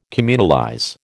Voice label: "communalize", American